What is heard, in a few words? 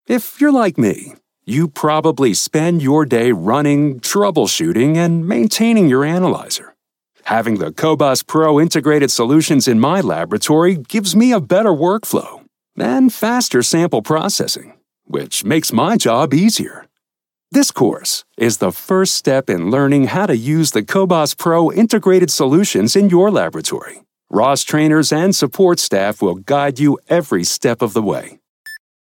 American English Voiceover Sample